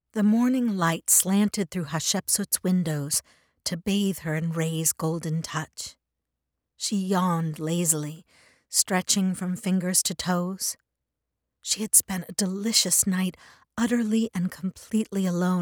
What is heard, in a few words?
Narration Sample; Audiobooks